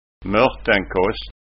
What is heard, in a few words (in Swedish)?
"mortenkasst", skanska ord